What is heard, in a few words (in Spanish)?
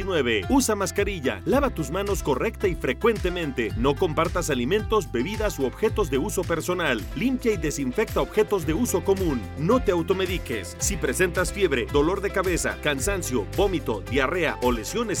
SPOT Regreso a Clases; COVID-19 Viruela Simica y Hepatitis Aguda Grave 2022